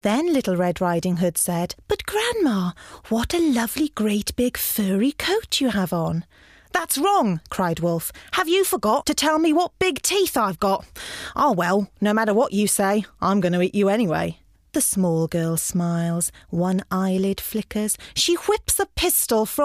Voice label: Narration